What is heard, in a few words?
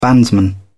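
"bandsman", British English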